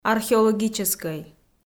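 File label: "археологическӧй", Komi-Zyrian, female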